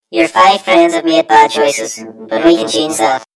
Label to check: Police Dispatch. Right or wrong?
Wrong